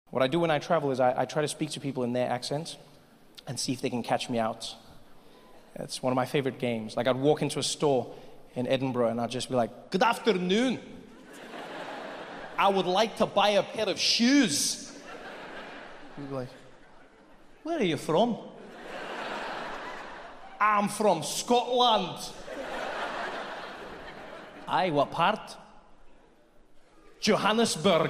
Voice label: comedy